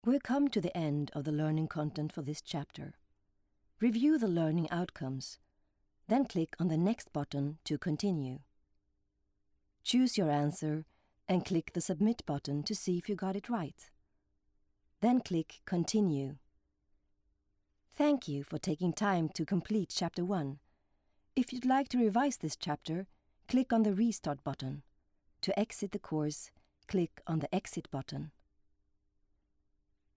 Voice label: info eng